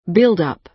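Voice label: "build-up", American English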